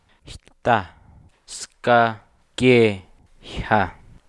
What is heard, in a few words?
descending tones